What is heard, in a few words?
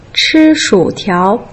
"chi1 shu3 tiao2", Putonghua